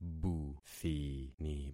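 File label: "buthini", concatenated syllables; Affixing experiment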